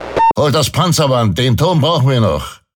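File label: Defective Modules Main Gun Damaged, Speech; Lehmann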